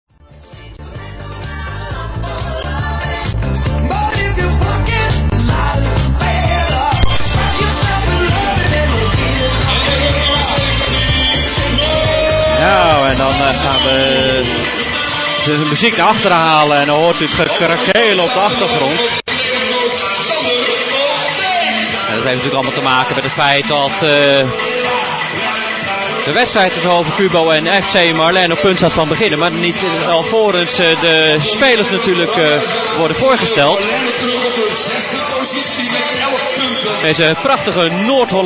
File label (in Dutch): Uitzending Zaalvoetbal Stream NL; hovocubo te weinig doeltreffend